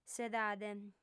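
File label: "s'edade", female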